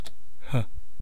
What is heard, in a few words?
"h", East Cree syllabics